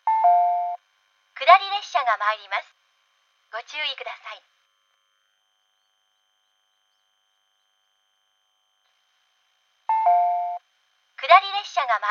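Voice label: platform 2 down approaching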